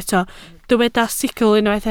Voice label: "sicl", Welsh